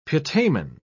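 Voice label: "putamen", British English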